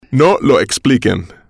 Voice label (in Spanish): no lo expliquen, Spanish